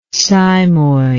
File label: "cymoid", english